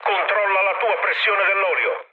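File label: Italian damaged oil mood low, speech; War Thunder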